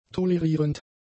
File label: "tolerierend", German; adjectives